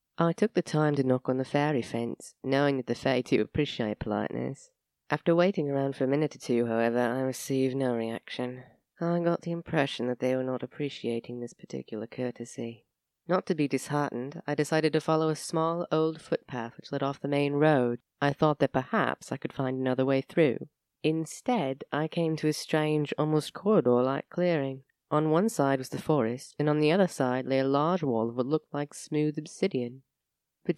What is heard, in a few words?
Narration